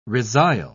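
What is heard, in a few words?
"resile", British English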